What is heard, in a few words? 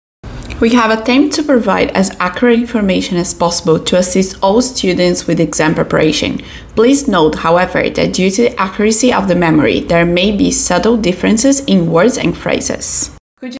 READ ALOUD